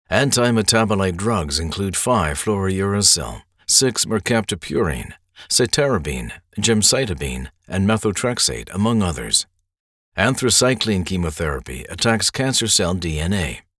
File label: Medical Narration